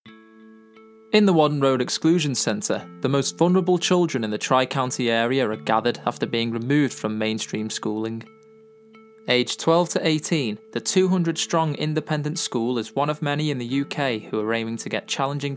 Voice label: Narration; 20s-40s. Male. Studio. Liverpool. Based in the US.